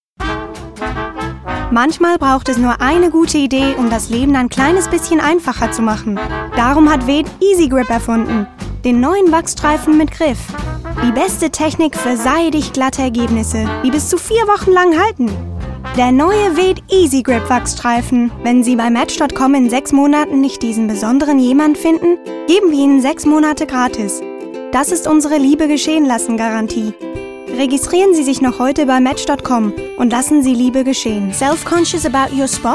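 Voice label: GSW Commercial, Swiss German; GoLocalise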